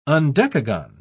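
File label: "undecagon", British English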